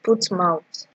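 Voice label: "put mouth", Nigerian Pidgin, female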